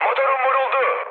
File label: damaged engine, mood high, speech tur; War Thunder